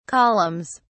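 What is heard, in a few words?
"columns", British English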